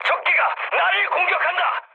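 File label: Korean aircraft damaged mood high; War Thunder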